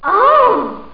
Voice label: GIRL